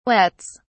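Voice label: "wets", British English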